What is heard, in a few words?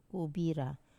"kubira", Wamesa; to bathe